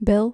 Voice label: "bil", Dutch